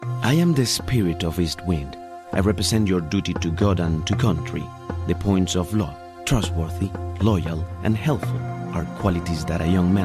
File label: SP Accent